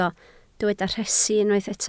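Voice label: "rhesi" Welsh, spoken word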